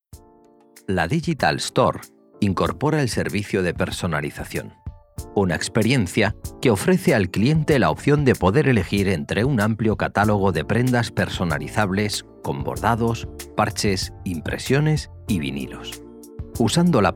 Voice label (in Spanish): Training Tienda Moda